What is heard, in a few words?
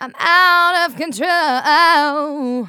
Phrase Female; Vengeance Samples; Phrases